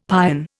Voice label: "pion", Indian; Dictionary

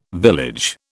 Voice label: "village", British

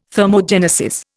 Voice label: "thermogenesis", Indian

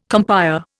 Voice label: "compère", Indian

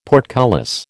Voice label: "portcullis", American

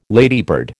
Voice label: "ladybird", American

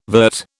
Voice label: "vert", British

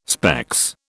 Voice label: "specs", British

